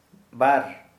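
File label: Santali, male, two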